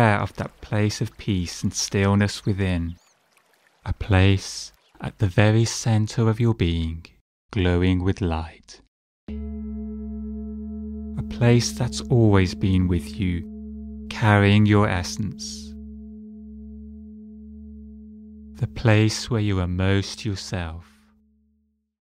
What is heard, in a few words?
guided meditation; spiritual-attunement-and-light-body-activation